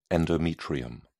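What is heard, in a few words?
"endometrium", British English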